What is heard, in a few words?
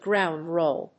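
"ground roll", English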